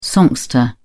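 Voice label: "songster", British English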